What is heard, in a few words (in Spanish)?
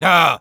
Sniper no, es